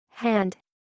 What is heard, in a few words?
"hand", English